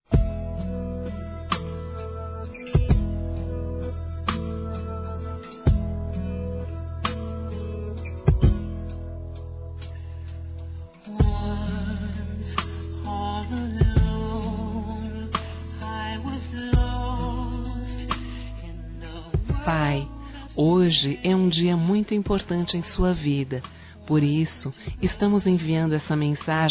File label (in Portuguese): Aniversário Pai Distante Duas Vozes; Aniversários; Aniv Familiar